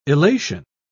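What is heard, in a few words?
"illation", British English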